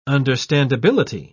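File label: "understandability", English, British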